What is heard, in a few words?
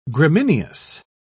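"gramineous", English, British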